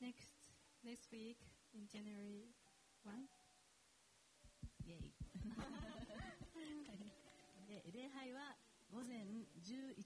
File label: announcement; sunday message 2022